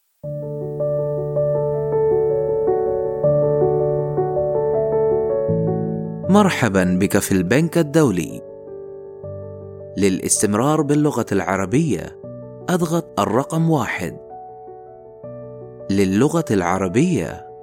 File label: Arabic Kuwaiti IVR On Hold Voicemail Greetings